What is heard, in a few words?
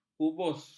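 "kubus", Malay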